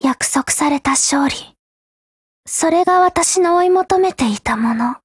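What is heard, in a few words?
win, Japanese; HK33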